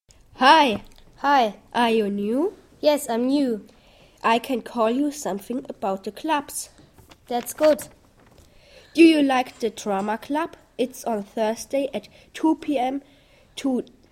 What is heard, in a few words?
dialog; englisch